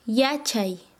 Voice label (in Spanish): "saber", Quechua Chanka